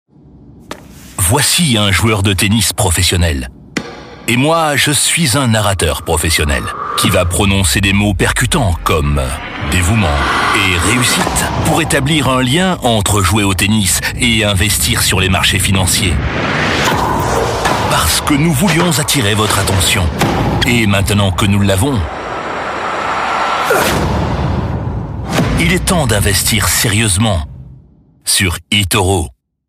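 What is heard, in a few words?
comercial, French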